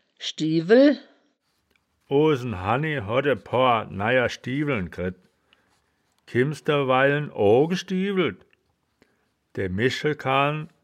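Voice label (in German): "schdiewel", wittlicher woerterbuch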